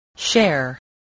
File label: "share", spelling